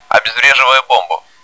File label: defusing bomb, bot; cstrike